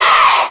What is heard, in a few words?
"hey" female group, voice; ooh ahh